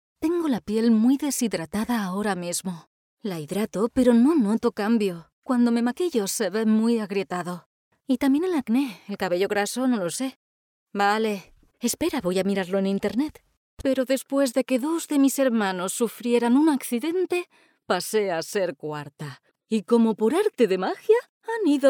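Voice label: Spanish Spain, female, voice over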